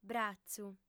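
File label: "bratzu", lingua sarda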